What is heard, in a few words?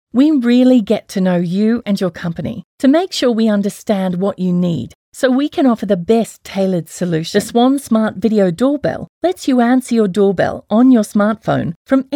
Natural